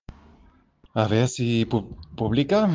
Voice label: Voice message